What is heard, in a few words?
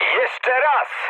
bomb failure mood high, speech pol; War Thunder